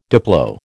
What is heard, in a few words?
"diplo", American